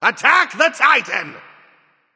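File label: vox attack male; smite; loki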